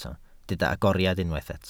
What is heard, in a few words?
"agoriad", Welsh